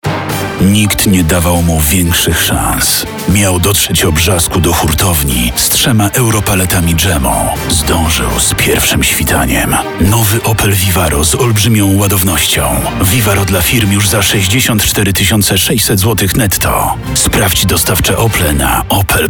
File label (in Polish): reklama; vivaro 3 palety dzemu